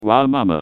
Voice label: taunt head pain; pyro